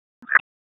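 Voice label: Police Southwest District Tac, radio; Raleigh; wake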